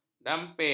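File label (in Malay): "damping", Malay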